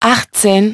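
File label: "eighteen", English; iOS 4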